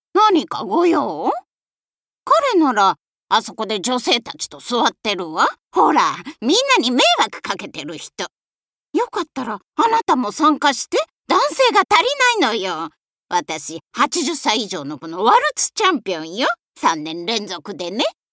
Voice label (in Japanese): 老人